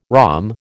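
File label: "rhomb", American English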